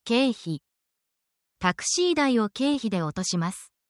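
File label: Japanese word, essential Japanese phrases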